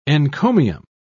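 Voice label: "encomium", British English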